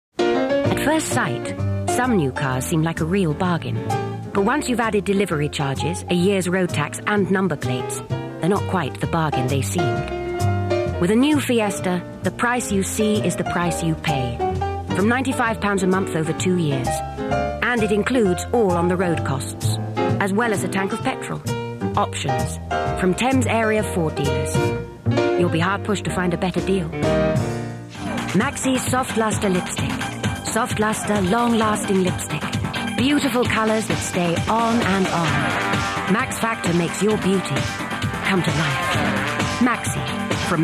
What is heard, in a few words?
Commercials